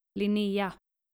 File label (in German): "linnea", skandinavische maedchennamen